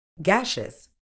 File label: "gaseous", medical English